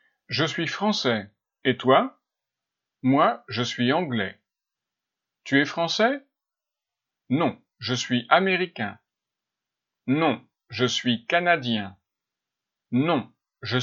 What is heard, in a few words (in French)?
audio nationalités homme